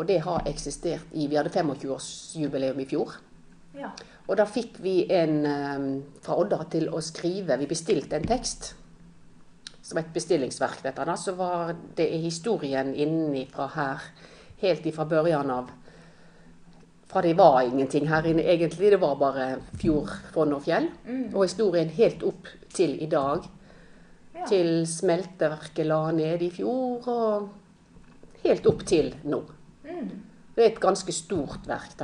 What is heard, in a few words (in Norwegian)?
Tyssedal, dialekt